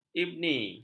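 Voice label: "ibni", Malay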